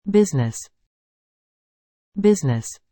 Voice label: "business", English